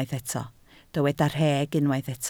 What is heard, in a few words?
"rheg", Welsh